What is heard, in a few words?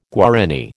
"Guarani", American